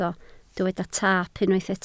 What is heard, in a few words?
"taap", Welsh